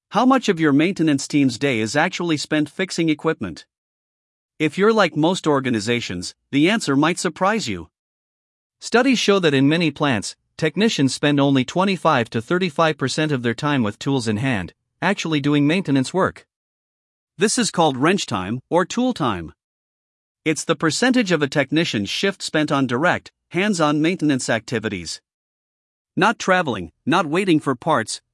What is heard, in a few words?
narration; tool time explainer